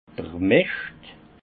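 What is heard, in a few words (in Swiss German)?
"mischt", alsace Haut-Rhin; fumier; vin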